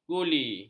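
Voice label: "guli", Malay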